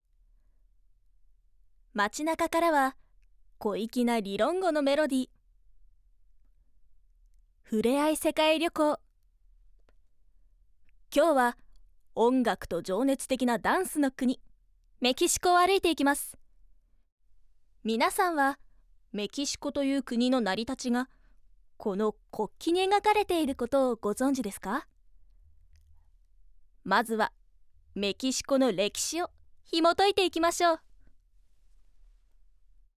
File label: Narration Travel